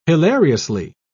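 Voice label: "hilariously", British English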